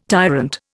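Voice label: "tyrant", Indian English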